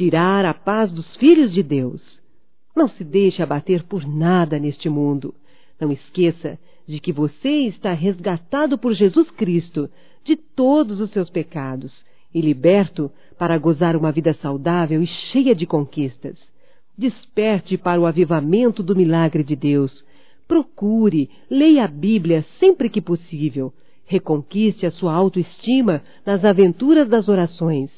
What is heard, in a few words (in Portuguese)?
FORÇA E OTIMISMO - F; Telemensagens 2017